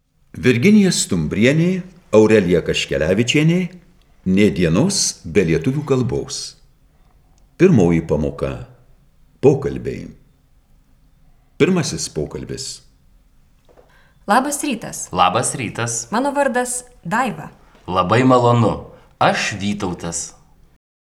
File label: Dialog